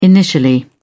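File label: "initially", British English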